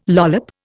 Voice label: "lollop", Indian